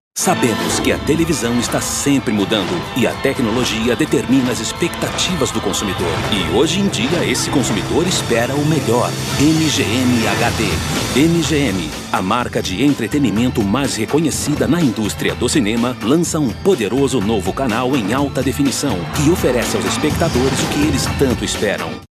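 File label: Brazilian portuguese tv promo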